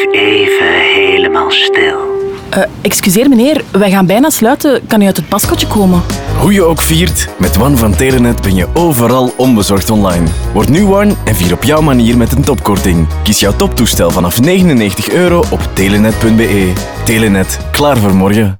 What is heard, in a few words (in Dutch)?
meditatie; radio 2